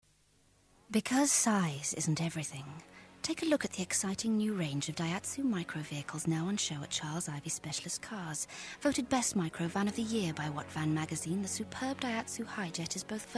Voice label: Commercial; Daihatsu

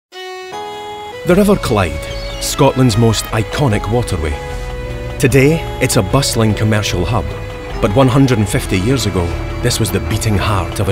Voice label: Narrative and Documentary

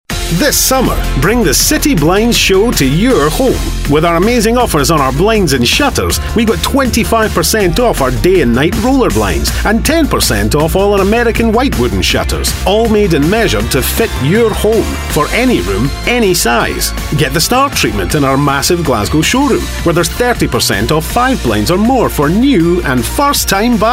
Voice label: Advert; City Blinds and Shutters

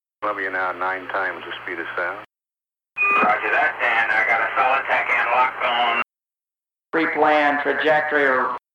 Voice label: Space mission radio

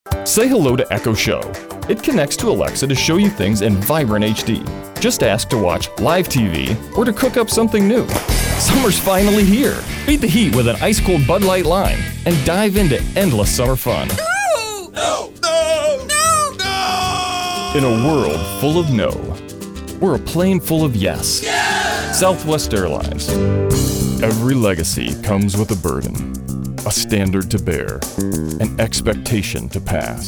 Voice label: Commercial

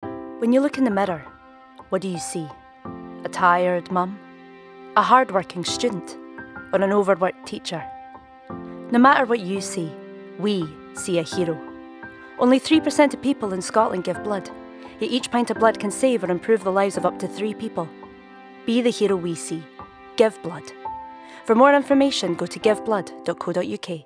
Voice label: Advert; Give Blood

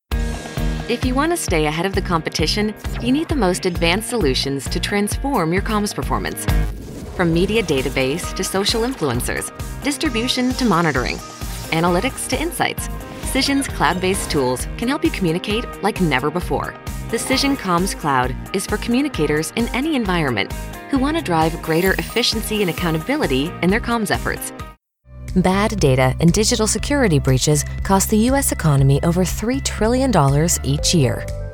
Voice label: Corporate Narration

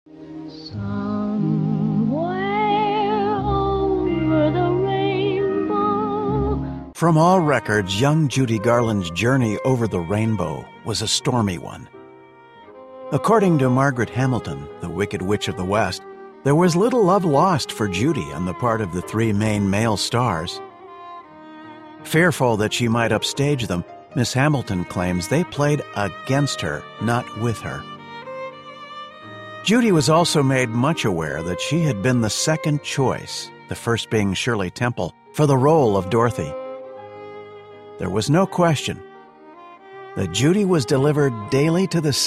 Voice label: Documentary

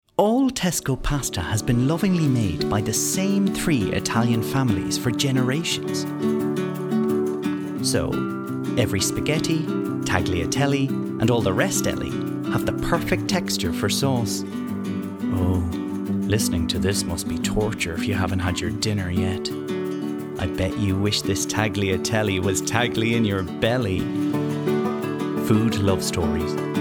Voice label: Commercial; Tesco

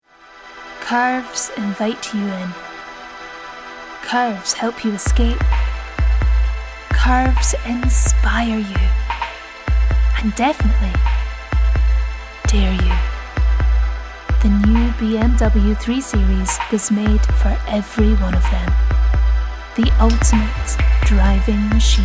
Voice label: Commercial

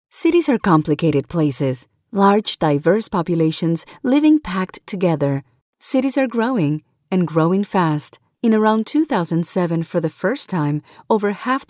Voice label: English eLearning

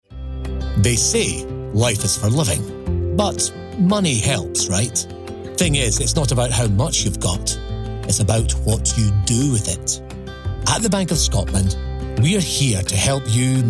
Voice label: Commercial; Bank of Scotland

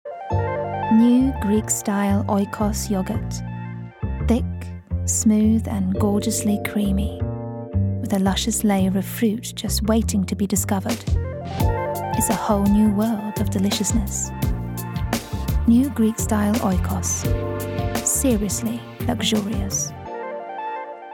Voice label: Commercial RP

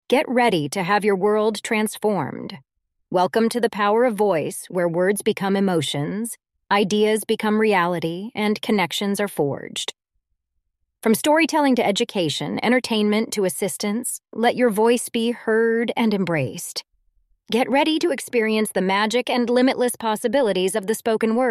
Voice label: Female

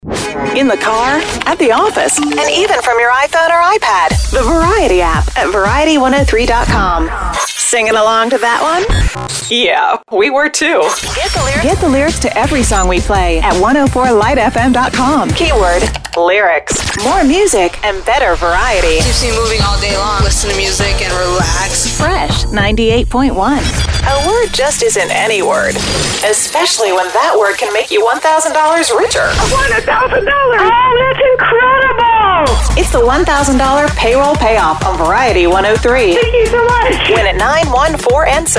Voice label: Imaging VO

